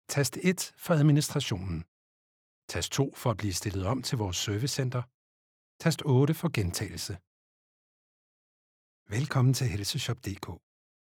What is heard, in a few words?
male danish ivr compilation